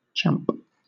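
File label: "champ", British English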